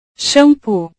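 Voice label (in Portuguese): "xampu", Portuguese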